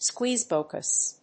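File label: "squeezebox", English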